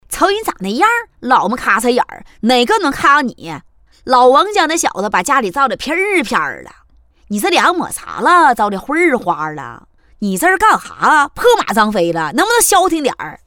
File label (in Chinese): 东北话; 动画 角色 东北话老么卡哧眼儿